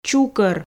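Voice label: "чукор", Komi, female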